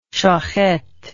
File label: "shochet", English